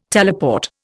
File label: "teleport", Indian